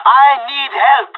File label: help, pirate; Counter-Strike Global Offensive